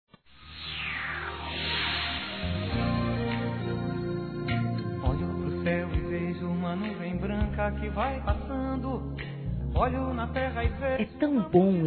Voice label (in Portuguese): NATAL EVANGELICO-F; Telemensagens; Natal e Ano novo; fem